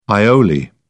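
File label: "aioli", British English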